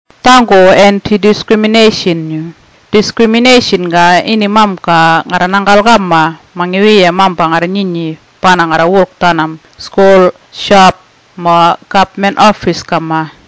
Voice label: murrinhpatha

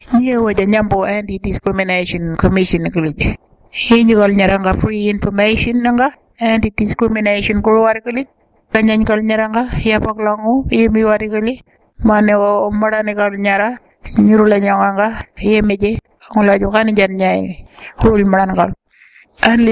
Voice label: warlpiri

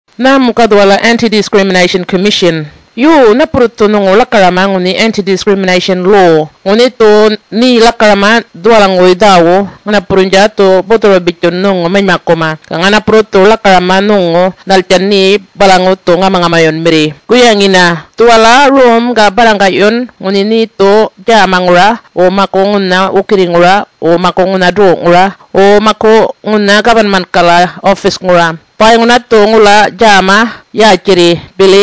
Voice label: yolngamatha

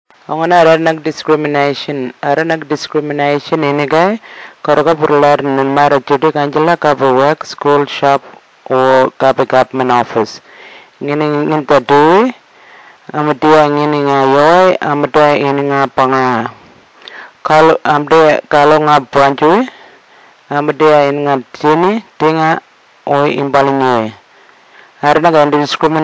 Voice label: tiwi

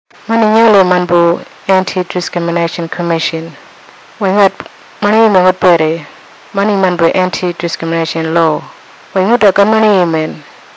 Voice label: kuniwinjuku; talking posters

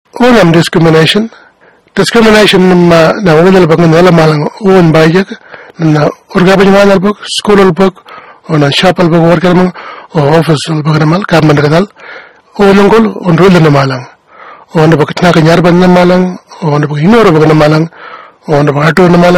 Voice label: western arrente; talking posters

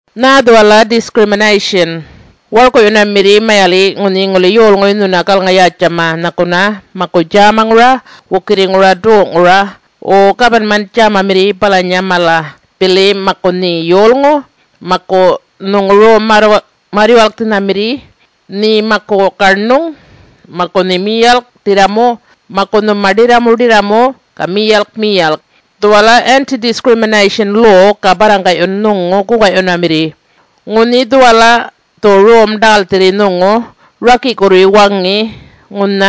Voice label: yolngamatha

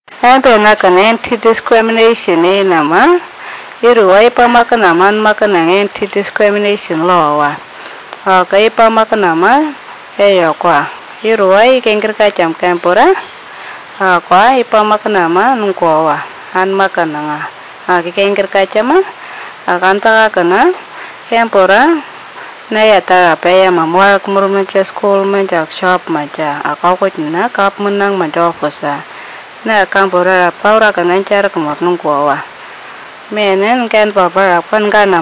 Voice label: anindilyakwa; talking posters

